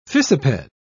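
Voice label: "fissiped", British English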